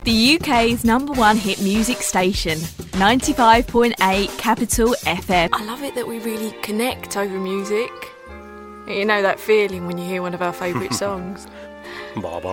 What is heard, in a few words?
Commercial